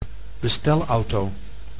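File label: "bestelauto", Dutch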